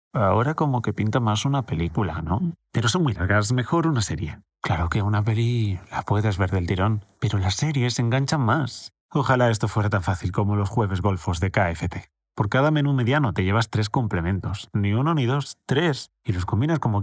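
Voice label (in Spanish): Natural, locución; KFC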